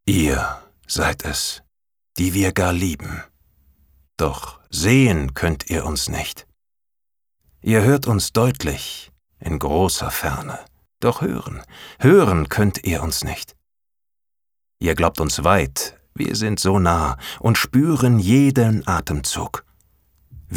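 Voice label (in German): poetisch, Sprecher, Männer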